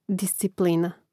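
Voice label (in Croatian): "disciplina", Hrvatski; mreznik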